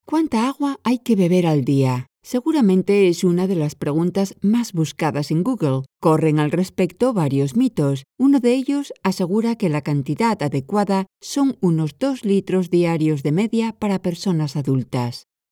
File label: spanish corporate videos; comédienne professionnelle de doublage espagnole